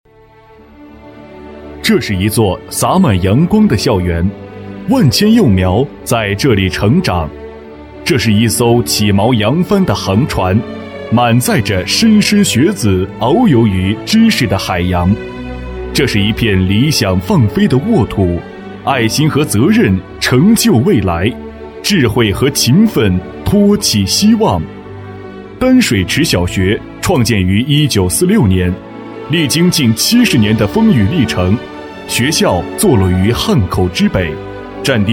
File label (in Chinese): 男 小学; 丹水池